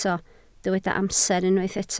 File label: "amser", Welsh